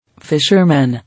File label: "Fisherman", English